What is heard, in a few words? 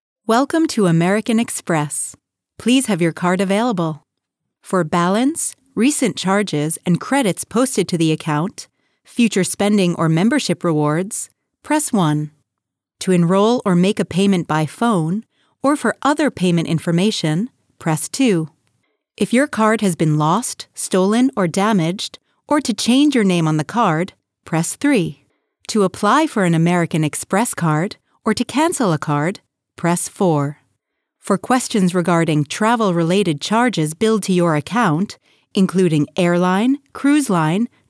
english us and canada female generic